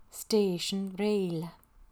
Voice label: Train station, Gaelic